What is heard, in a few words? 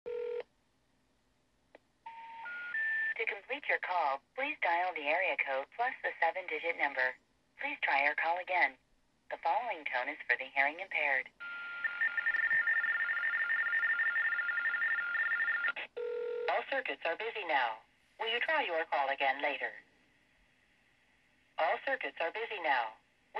dial area code plus number, Verizon POTS; Dial Area code and number (10 digit dialing)